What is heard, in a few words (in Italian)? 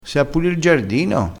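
"fatia", vocabolario dialettale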